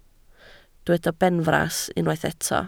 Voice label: "benfras", Welsh